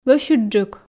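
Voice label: "лӧсьыдджык", Komi, female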